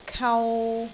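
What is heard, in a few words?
"kau3", Cantonese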